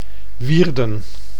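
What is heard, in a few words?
"Wierden", Dutch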